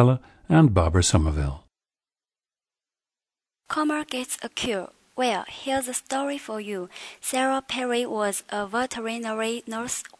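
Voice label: jiangsu, dialects of English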